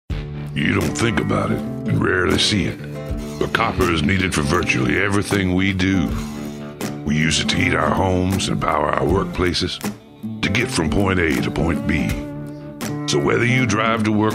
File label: Rough and Raspy; Voice123